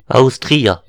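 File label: "austria", Breton; gcompris; geography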